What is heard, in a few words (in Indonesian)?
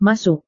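"masuk", Indonesian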